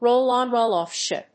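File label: "roll-on roll-off ship", English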